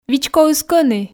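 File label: "вичкоыс кӧні", Komi, female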